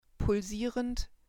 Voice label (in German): "pulsierend", german